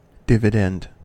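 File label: "dividend", American English; pronunciations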